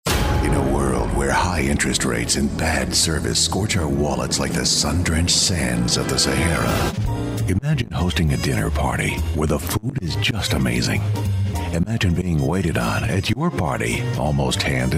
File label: Commercial Male, Deep, Dramatic, Intense; TV and Radio, Narration, Phone Hold/IVR; Commercial Voiceover